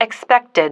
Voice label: "expected", female